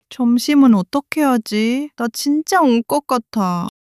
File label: sadness; monologue